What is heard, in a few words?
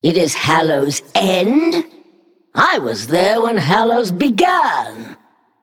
Female Naga HAPPY HALLOWEEN